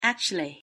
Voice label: "actually", pronunciation en